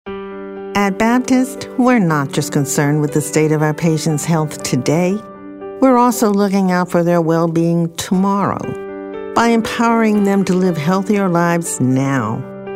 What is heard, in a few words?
caring